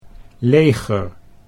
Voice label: "leger", Dutch

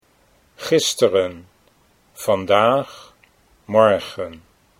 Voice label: "gisteren vandaag", Dutch

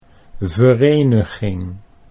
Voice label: "vereniging", Dutch

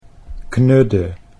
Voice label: "knudde", Dutch

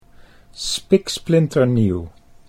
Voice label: "spiksplinternieuw", Dutch

